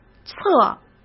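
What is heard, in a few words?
"册" Mandarin Chinese; hsk; chinese-flashcards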